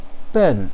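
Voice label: "ben4", Mandarin Chinese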